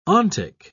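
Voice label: "ontic", British English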